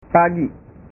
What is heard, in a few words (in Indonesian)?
"pagi", bahasa